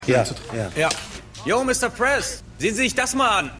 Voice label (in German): Schueler; The Wire